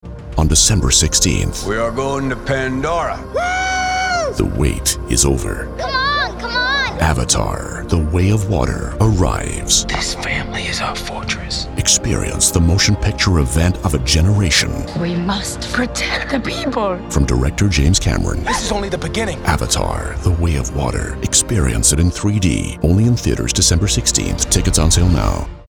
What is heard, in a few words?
PROMO; AVATAR Way of Water